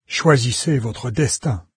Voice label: choose fate, fr, NARRATOR